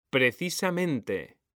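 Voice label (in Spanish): "PRECISAMENTE", Spanish; mekanta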